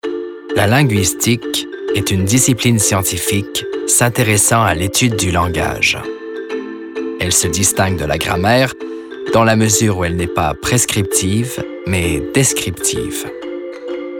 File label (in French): narration